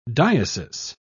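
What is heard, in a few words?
"diesis", British English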